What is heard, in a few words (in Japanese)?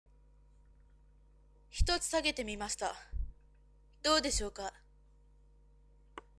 onna